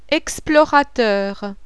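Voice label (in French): "explorateur", French; science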